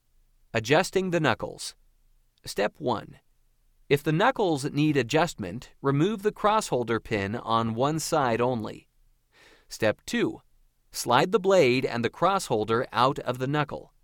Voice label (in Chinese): 外籍英男 产品操作讲解